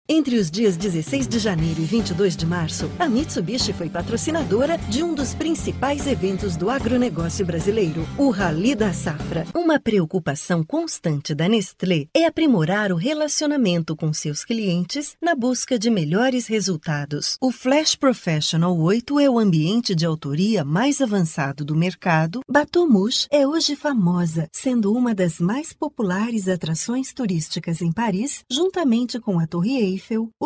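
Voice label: Brazilian Portuguese Corporate; GoLocalise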